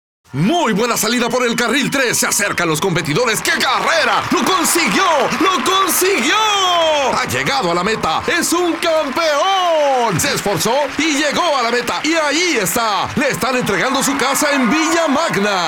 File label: Spot; Villa Magna Carrera